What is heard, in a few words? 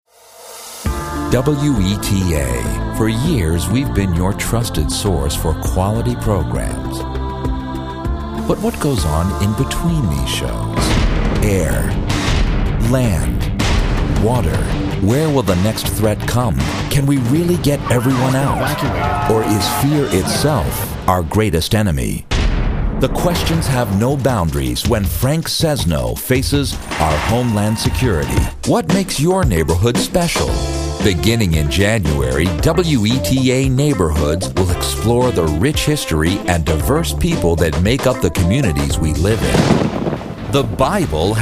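public, voice actor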